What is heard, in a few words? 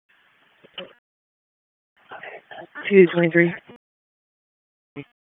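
Police Northeast District Disp, radio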